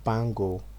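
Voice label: "bangle", Nigerian Pidgin, male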